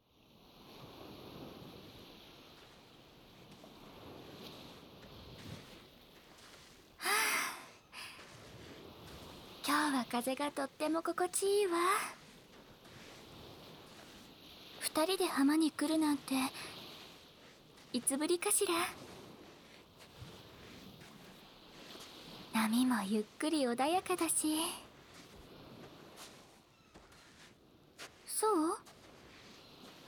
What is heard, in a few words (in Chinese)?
海浪的声音和掏耳朵…十分安宁, ASMR